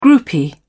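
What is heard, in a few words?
"groupie", British English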